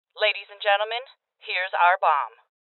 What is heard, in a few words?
Voices Bomb Spotted Female